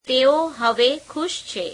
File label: gujarati they are happy now